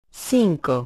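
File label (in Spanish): "Cinco", Spanish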